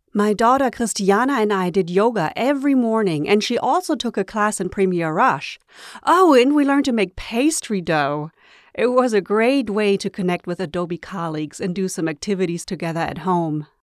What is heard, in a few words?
German Accent Employee Internal Event